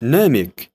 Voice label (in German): "namik", arabische jungennamen